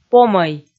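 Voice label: "помӧй", Komi, female; kpv audio dict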